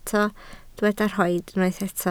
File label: "rhoid", Welsh